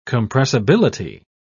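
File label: "compressibility", English, British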